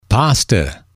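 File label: "pasta", English, male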